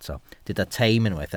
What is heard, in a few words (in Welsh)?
"teim" Welsh